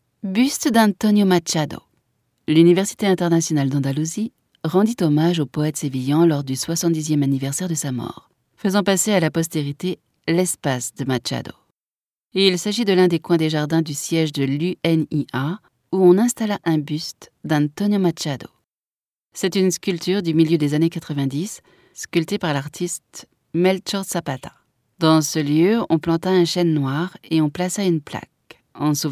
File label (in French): audioguia, frances; baeza